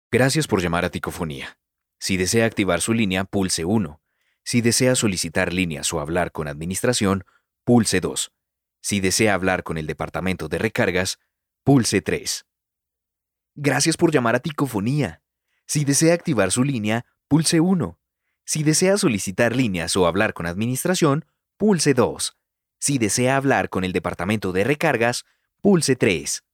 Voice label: spanish latin american neutral ivr

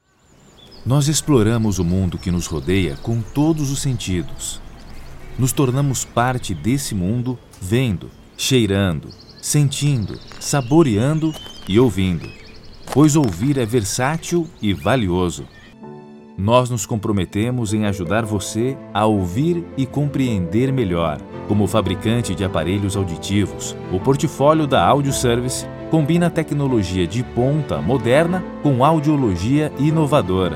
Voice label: portuguese brazilian narration

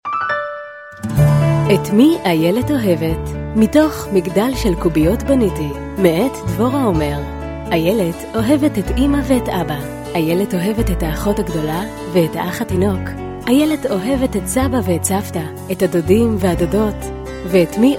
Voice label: hebrew audiobooks

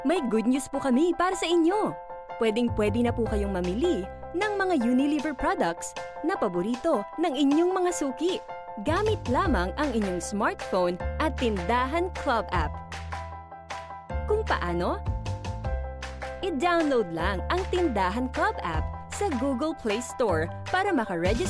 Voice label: tagalog

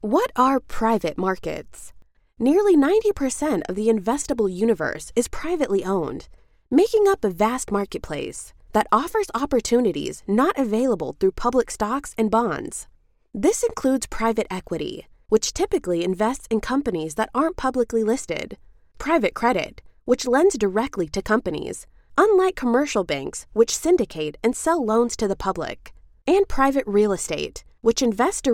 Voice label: english american e-learning

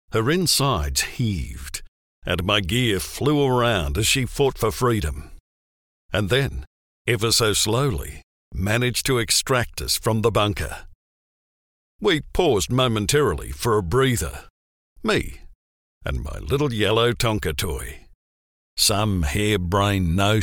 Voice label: english australian audiobooks

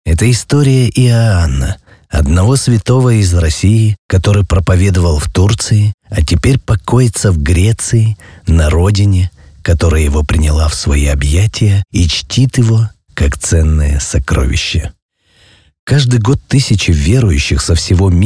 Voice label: russian documentaries

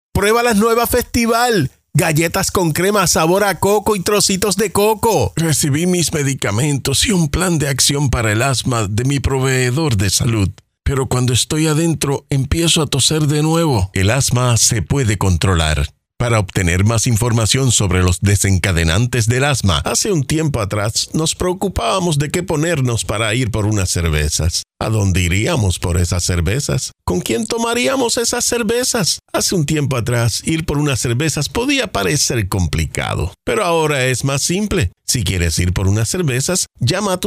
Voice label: spanish puerto rican promos

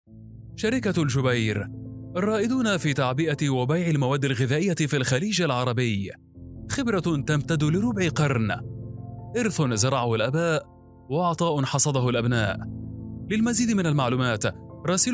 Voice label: arabic corporate videos

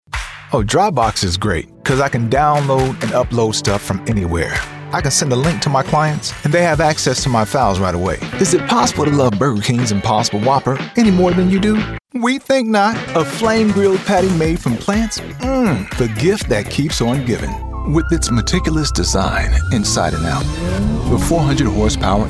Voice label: english american commercial; dublador profissional afro-americano que fala inglês

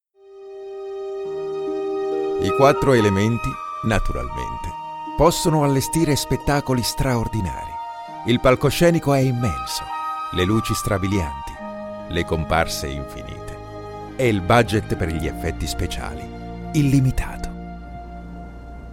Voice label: italian documentaries

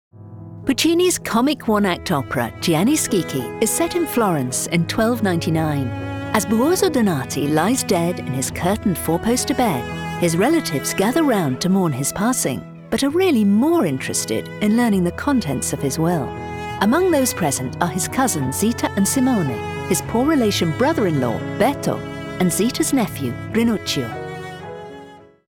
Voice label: english british documentaries

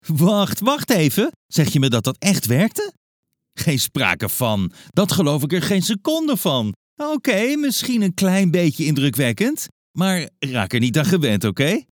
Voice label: dutch animation

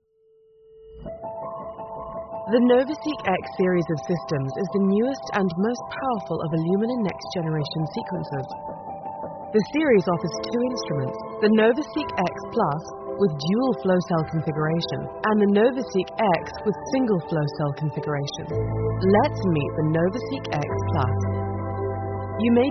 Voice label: english british medical narration; voice actor

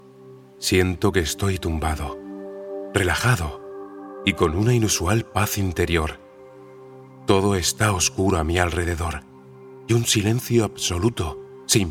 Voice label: spanish audiobooks

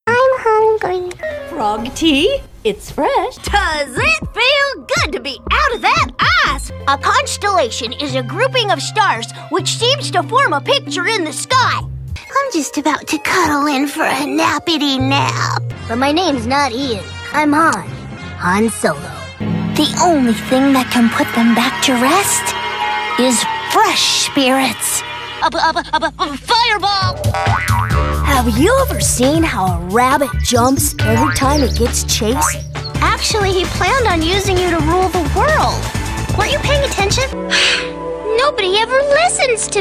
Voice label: english american cartoon animation

